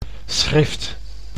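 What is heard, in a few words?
"schrift", Dutch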